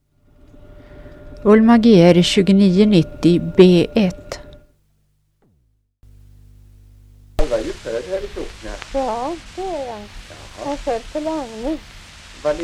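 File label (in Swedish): St Anna Östergötland, dialekter; Folkminnen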